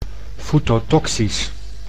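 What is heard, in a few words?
"foetotoxisch", Dutch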